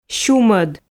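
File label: "сюмӧд", Komi, female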